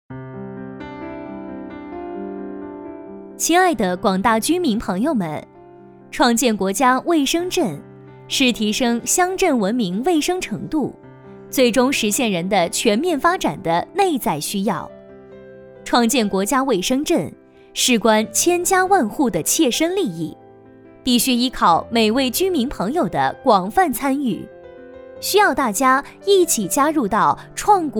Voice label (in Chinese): 女 专题片 创建国家卫生城市